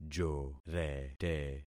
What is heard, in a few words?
"jorete", concat syllables; Affixing experiment